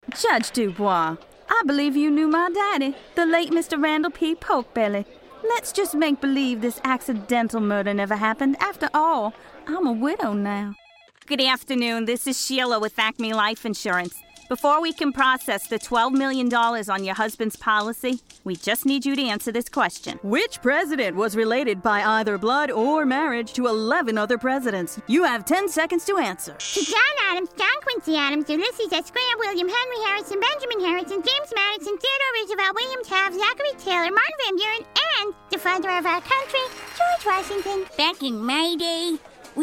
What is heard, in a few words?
Animation